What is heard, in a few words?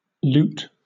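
"loot", British English; pronunciations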